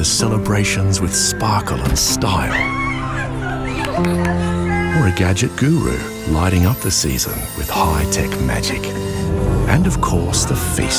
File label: Christmas Makers Voice; Stockland